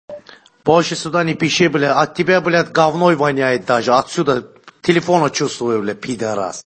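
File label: voice message; Golosovoe soobschenie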